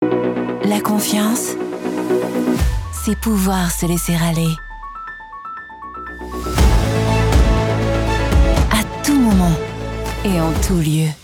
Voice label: french

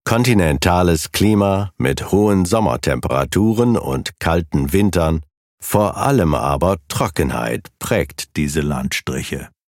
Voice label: german documentaries

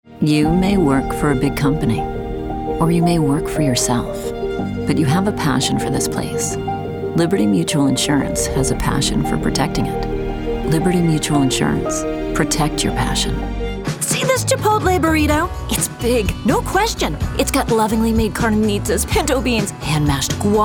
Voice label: english american commercial

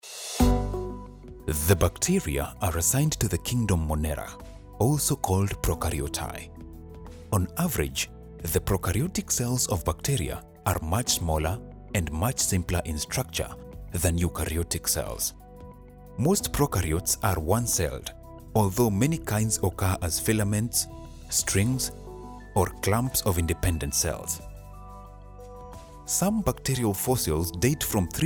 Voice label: english african e-learning

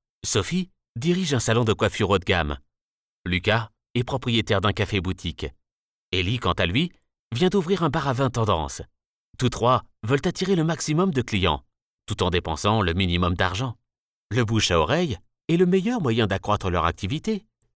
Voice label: french others

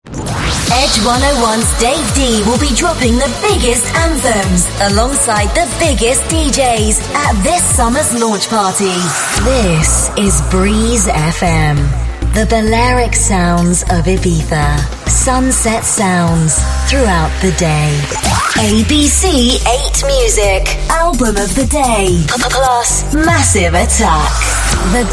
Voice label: english british promos

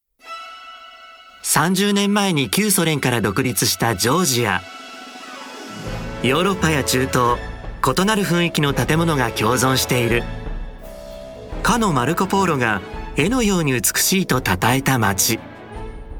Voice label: japanese tv shows

